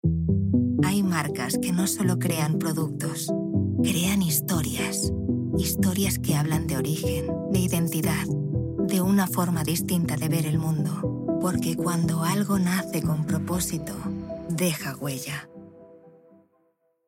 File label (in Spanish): DEEP ESP